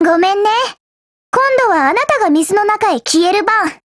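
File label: Japanese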